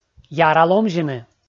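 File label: "яра ломзьыны", Komi, male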